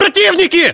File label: threat infantry generic, battlechatter ru; localized english